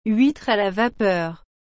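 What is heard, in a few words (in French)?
"huitre a la vapeur", French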